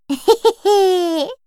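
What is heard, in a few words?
story, Japanese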